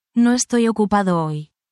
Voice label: spanish, spanish phrases